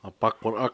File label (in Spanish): hombre viejo, Cucapá; Parentesco